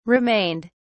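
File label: "remained", British English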